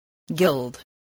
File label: "guild", spelling